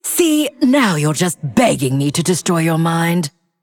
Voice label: Female Undead Intro